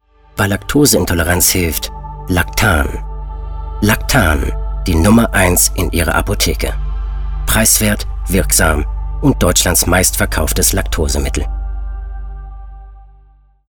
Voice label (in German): Werbung; seriös; Apotheke